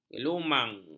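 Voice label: "gelumang", Malay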